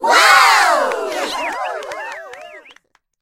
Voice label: voice gacha result, en